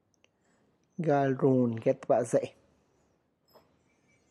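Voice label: preparing sweet corn tamal, Tlacochahuaya Zapotec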